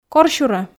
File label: "корсюрӧ", Komi